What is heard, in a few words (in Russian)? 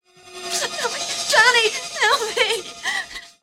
molba o pomoshi devushki